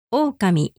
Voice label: "ookami", Japanese

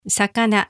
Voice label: "sakana", Japanese

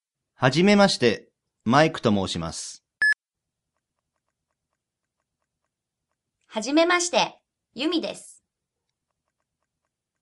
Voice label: Japanese Dialogue with Repetition

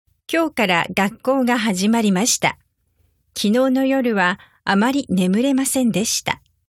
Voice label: school started normal speed, Japanese

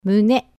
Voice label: "mune", japanese

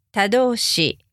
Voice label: "tadoushi", japanese

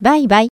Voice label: "baibai", japanese

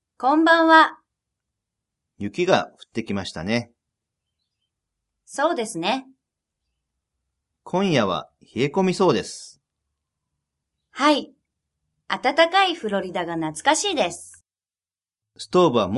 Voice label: Japanese Dialogue

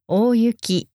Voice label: "ooyuki 大雪", japanese

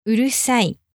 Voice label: "urusai", japanese

